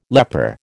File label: "leper", American